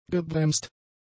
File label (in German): "gebremst", German; adjectives